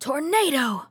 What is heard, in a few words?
"tornado", RPG Voice; RPG Voice Starter Pack